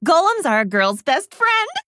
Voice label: Female Pandaren Play